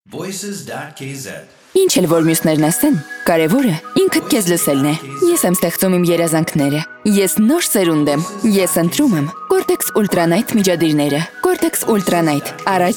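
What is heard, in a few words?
Armenian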